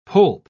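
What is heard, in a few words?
"poulp", British English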